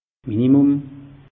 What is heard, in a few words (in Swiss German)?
"minimum", alsace; meteo